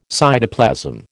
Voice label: "cytoplasm", American